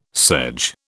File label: "sedge", British